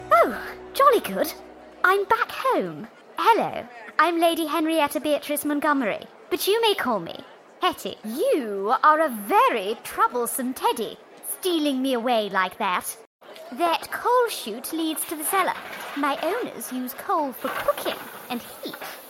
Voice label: Character Posh RP